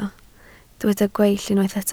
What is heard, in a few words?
"gweill", Welsh